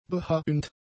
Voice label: "behauend", German; adjectives

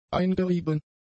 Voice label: "eingerieben", German; adjectives

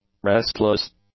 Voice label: "restless", English; adjectives

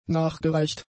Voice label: "nachgereicht", German; adjectives

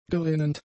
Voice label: "gerinnend", German; adjectives

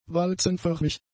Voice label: "walzenförmig", German; adjectives

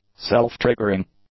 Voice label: "self-triggering", English; adjectives

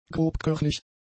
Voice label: "grobkörnig", German; adjectives

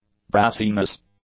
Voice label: "brassiness", English; nouns

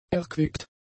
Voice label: "erquickt", German; adjectives

